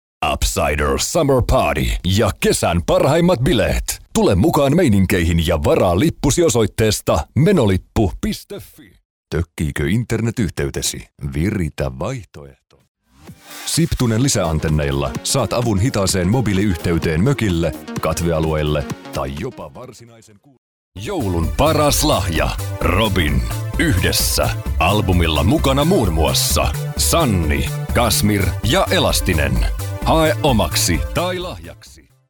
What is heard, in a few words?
male finnish voice over sales